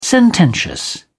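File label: "sententious", British English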